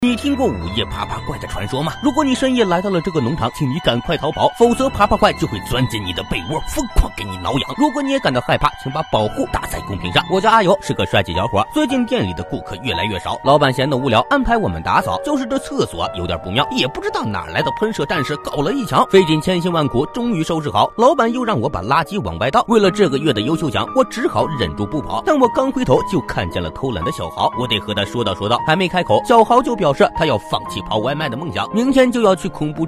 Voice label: 游戏解说

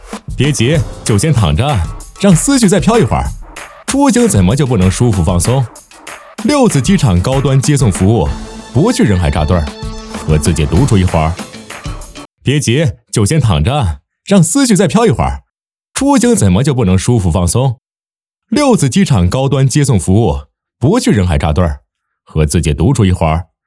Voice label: 年轻质感欢快魔性广告

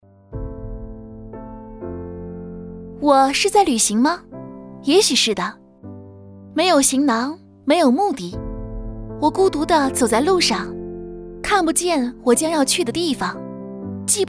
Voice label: 短视频抖音青春甜美活力

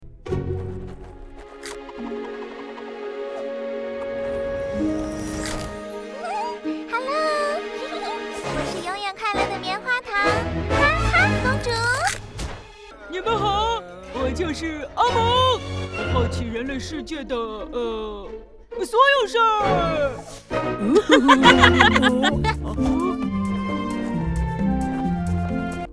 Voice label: 多角色

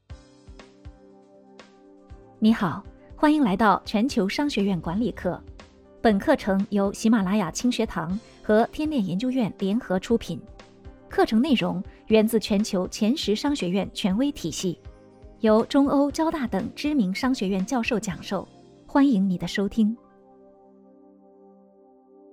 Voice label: 商学院 管理 课程 前言 配音; 全球商学院管理课程前言配音

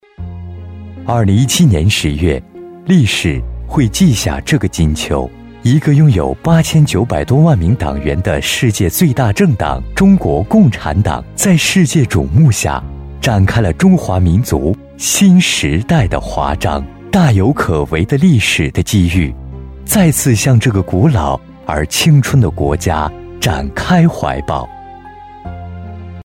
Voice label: 纪录片 人文 纪录

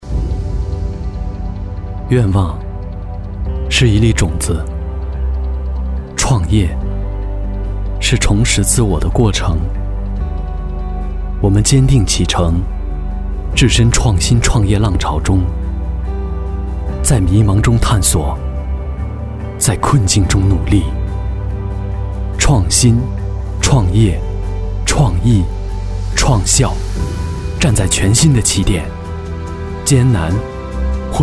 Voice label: 青年创业旁白

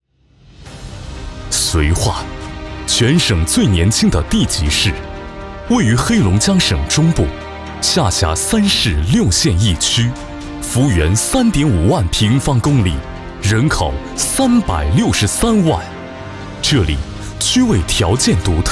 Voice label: 宣传片; 宣传片大美绥化吉祥福地

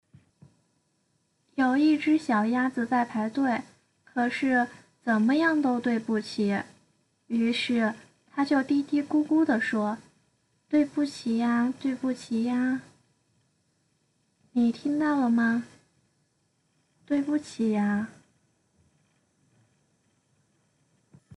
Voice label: 萌妹音试音

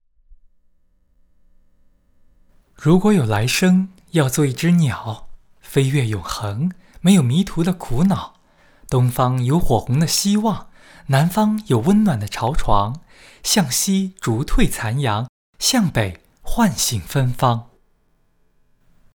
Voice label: 情感类

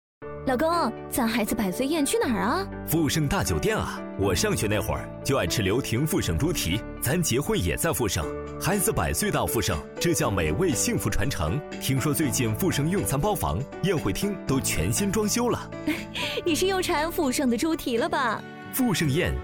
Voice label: 男女对播

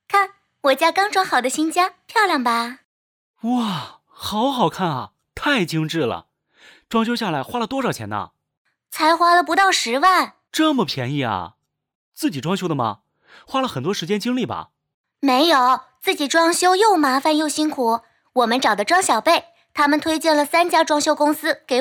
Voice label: 装修广告男女对话

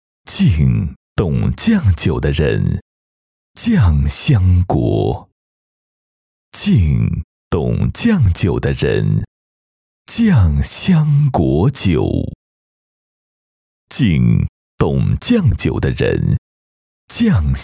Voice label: 酱酒广告 男

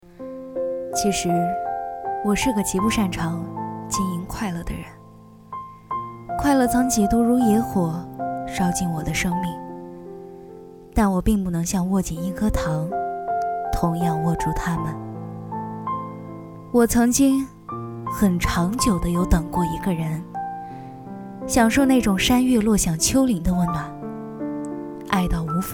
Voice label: 伤感御姐

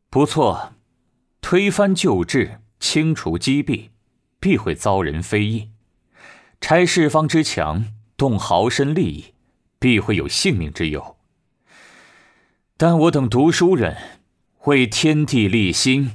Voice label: 青年沉稳

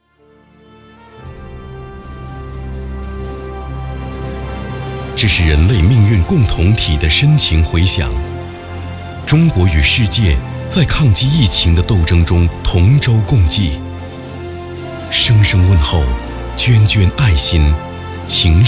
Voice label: 商业旁白 抗击疫情 充满希望感; 中国妇女报

